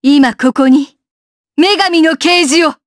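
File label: voice, Japanese; Skill7; kingsraid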